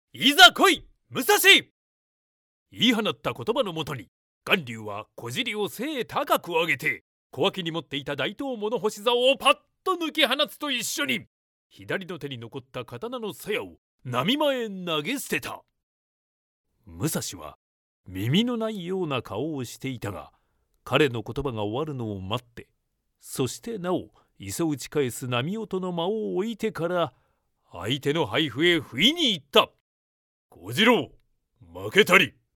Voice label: male